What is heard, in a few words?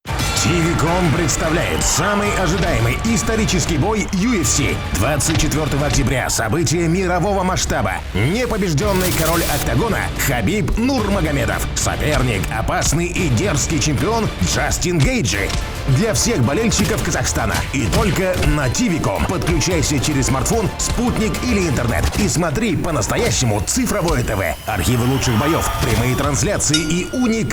Russian promos